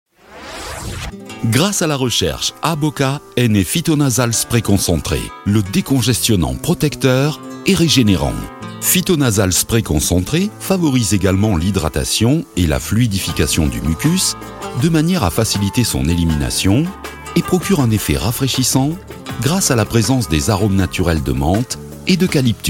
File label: male French corporate